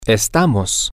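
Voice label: we are, spanish, male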